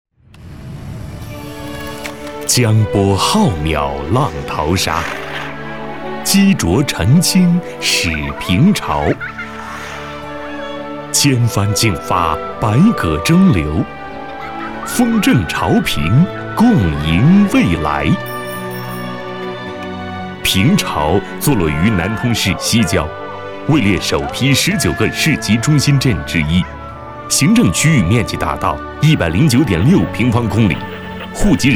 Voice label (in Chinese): 男 宣传片; 平潮